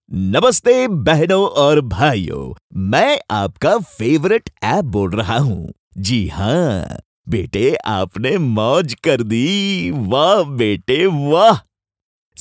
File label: HINDI AdFilm; Mauj App